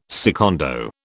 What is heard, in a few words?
"secondo", British